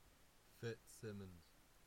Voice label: voicenote